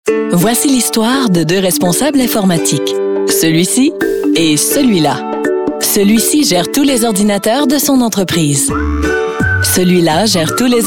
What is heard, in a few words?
french voice over female narrator